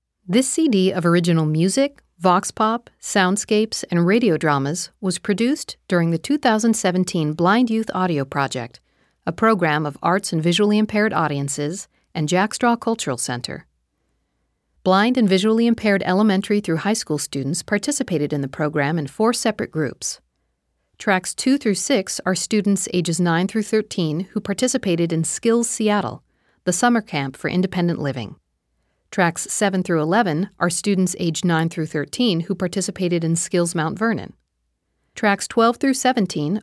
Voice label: Opening Narration